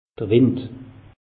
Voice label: "wind", alsace; meteo

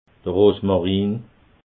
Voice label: "rosmarin", alsace

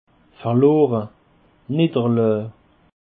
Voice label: "verlore", Alsace

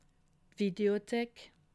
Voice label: "videothek", alsace

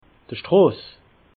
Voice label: "stross", alsace

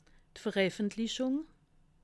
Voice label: "vereffentlichung", alsace

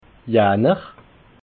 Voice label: "janner", alsace

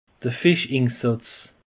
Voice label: "fischinsetze", alsace; peche

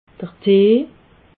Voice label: "tee", alsace

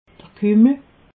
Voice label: "kummel", alsace

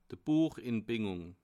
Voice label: "buechinbingung", alsace

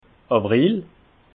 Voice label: "awril", alsace

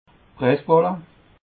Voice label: "pressballe", alsace

